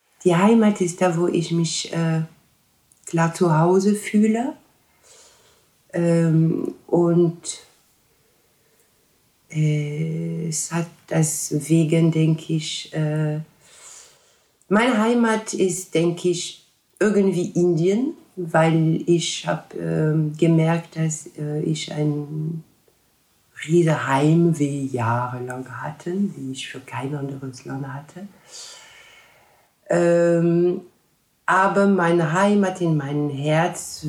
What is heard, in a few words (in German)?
franzoesisch